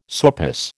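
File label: "sourpuss", American English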